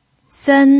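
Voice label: "sen" Mandarin Chinese, first tone, pinyin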